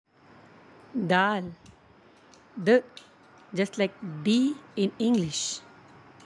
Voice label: "dal", Arabic; د; Like D

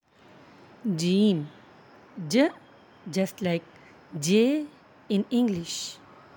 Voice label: "jiim", Arabic; ج; Like j

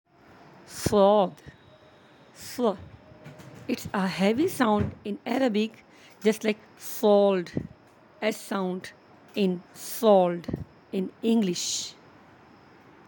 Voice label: "saad", Arabic; ص

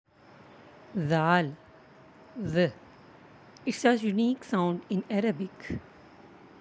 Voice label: "zal", Arabic; ذ

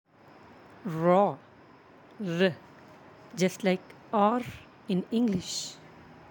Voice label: "ra", Arabic; ر; Like R

